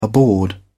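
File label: "aboard", British English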